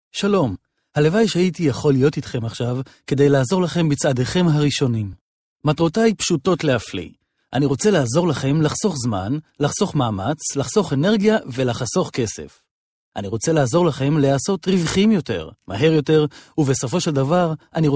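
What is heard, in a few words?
Hebrew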